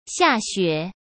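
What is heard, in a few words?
"下雪", Mandarin Chinese; hsk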